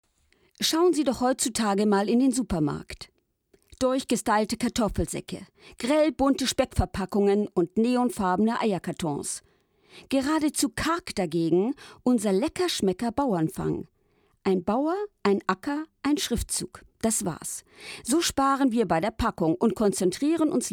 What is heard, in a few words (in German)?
werbung